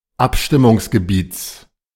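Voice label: "Abstimmungsgebiets", German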